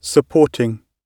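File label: "supporting", British English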